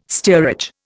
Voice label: "steerage", Indian

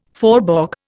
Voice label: "faubourg", Indian

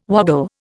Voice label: "woggle", Indian

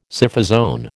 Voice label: "Scyphozoan", American

